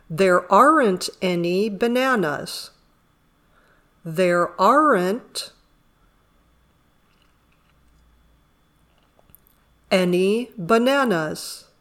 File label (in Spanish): Dictado